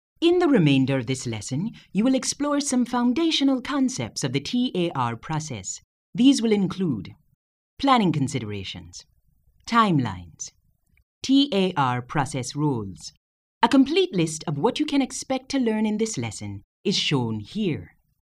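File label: Bahamian Accent, voiceovers; foreign languages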